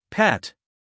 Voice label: "pet", US male; everyone-can-use-english